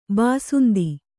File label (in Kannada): "ಬಸಂತ", kannada